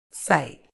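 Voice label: "sight", British English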